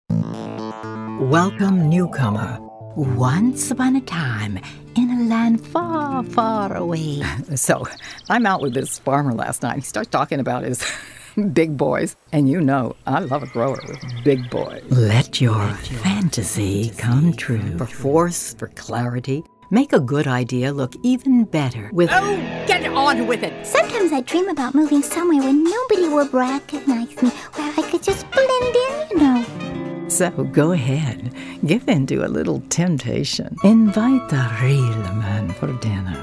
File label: voiceover